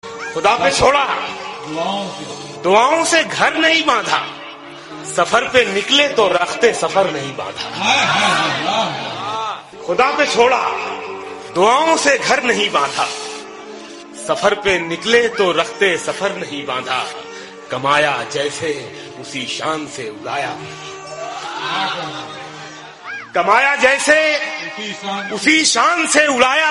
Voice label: Shayri hindi Ringtone